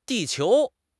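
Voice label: "di4 qiu2", Mandarin Chinese; HSK4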